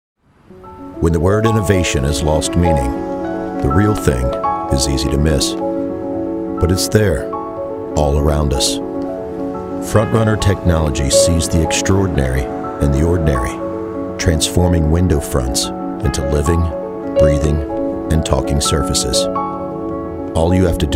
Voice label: Conversational male voice, Corporate, Professional Corporate Voice; This Demo Showcases A Professional Corporate Voice Ideal For Corporate Videos; FrontRunner Technologies FireFly